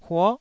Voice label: "huo9", Taiwanese syllable